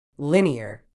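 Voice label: "linear", British English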